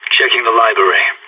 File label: checking library, radio; SAS